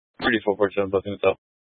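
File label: Police Dispatch, Scanner audio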